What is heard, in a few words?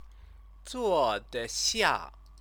"zuodexia", Chinese